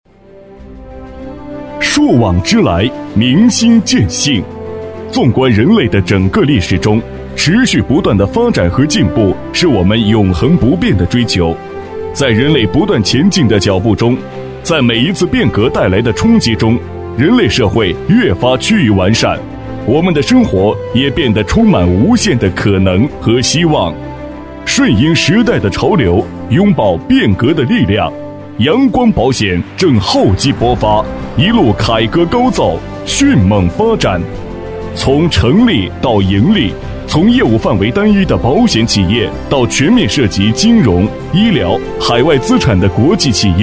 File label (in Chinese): 男, 人寿年会; 阳光